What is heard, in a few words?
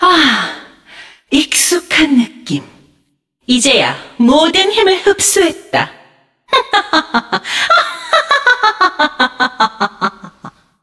cinematic voice; lineage2